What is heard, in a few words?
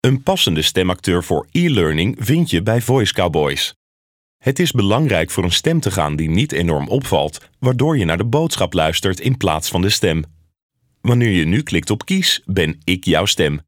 ELEARNING